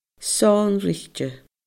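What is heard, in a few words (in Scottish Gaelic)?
"sonraichte", Scottish Gaelic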